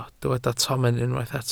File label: "tomen", Welsh